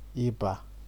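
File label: "iba", Nigerian Pidgin, male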